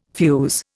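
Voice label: "fuze", Indian English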